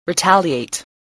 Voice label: "retaliate", English